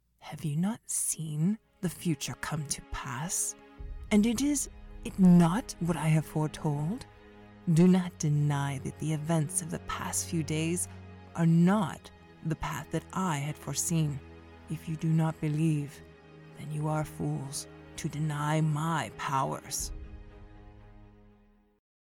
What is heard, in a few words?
Evil sorceress Powerful Sinister; voice demos